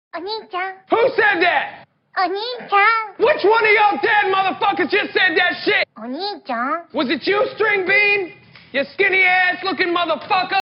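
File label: "onii", Japanese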